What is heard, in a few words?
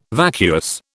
"vacuous", British